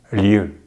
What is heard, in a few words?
"lieu", French